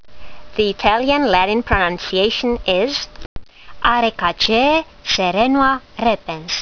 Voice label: latin; Serenoa repens